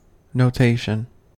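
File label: "notation", US English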